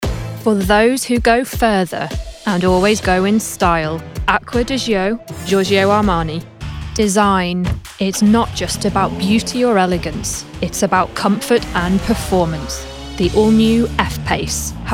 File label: Commercial